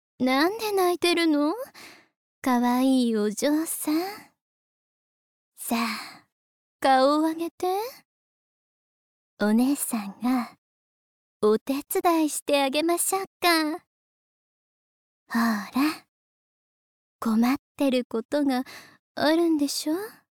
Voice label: Lady

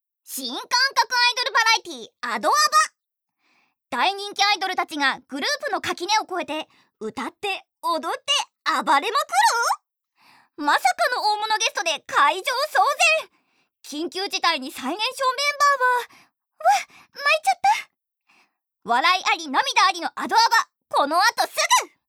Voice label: Variety, ナレーション②バラエティ番組

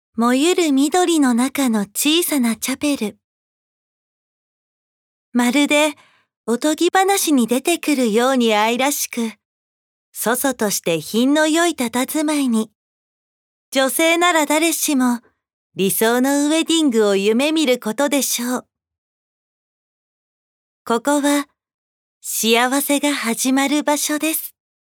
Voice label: Narration

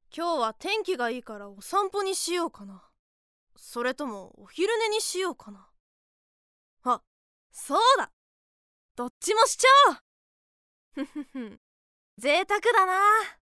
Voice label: voice sample, Boy; 男の子

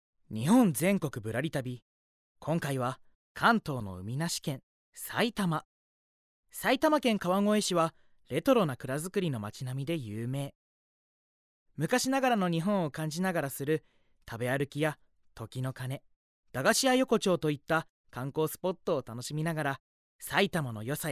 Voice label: Narration Travel; ナレーション(旅番組); bloomz-inc

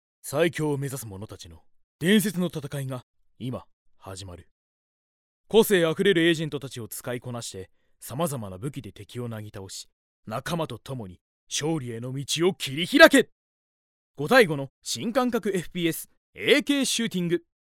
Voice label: Narration Ad; ナレーション(広告); bloomz-inc

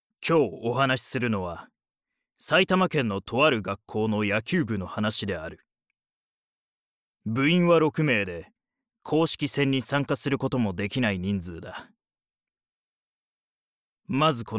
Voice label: Documentary

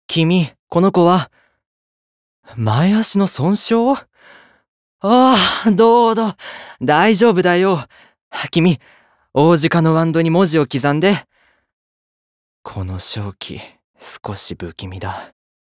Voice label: voicesample Yasashii Juui

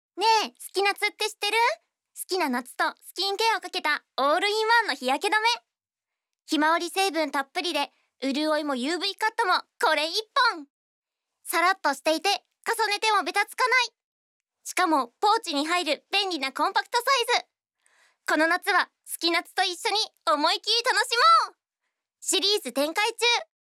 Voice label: Narration Cosmetics CM; ナレーション②Z世代向け化粧品CM

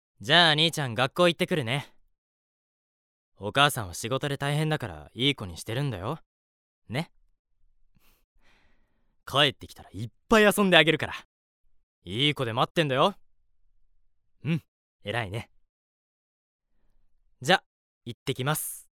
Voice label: Kind Older Brother; 優しい兄

